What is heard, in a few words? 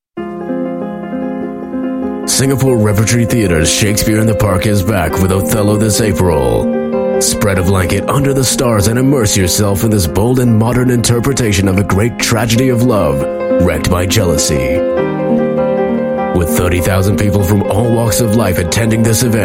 Asian English; Othello